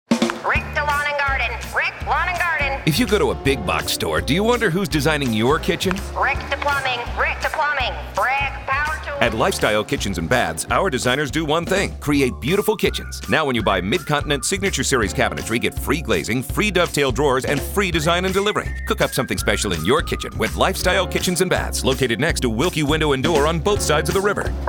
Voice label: promo; MidContinent